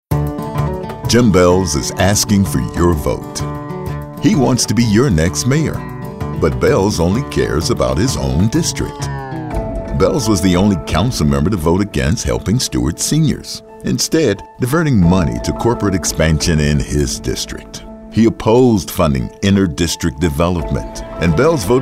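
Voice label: Political, voice-over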